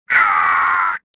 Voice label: choke; swamp

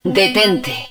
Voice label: HUD Pull Up, Spanish

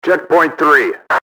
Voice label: checkpoint 3, enemy english team orders; XBOX

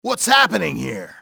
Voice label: "whats happening here", english male; XBOX; enemy

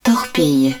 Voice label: hud torpedo launcher, french

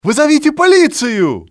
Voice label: call police, male; XBOX; civ

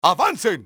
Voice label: advance, spanish soldier; XBOX

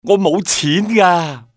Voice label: no money, male; XBOX; civ

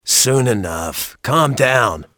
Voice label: calm, guard; XBOX

